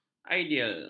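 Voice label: "ideal", Malay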